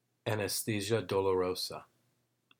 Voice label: AD Voiceover